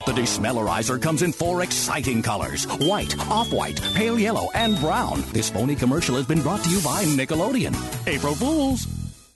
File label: Gameshow